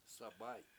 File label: "sabAy7", washo; to blow